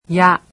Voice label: japanese alphabet "ya"